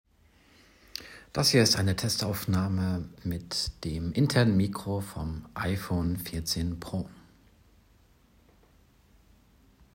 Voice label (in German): Testaufnahme iPhone 14 Pro Mikro